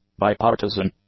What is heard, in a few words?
"bipartisan", English; adjectives